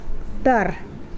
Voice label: lung, Mokilese